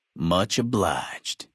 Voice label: male adult gambler asks; FNV